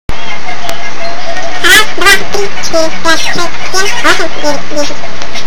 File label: narrat